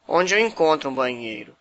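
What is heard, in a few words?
toilet, Brazilian Portuguese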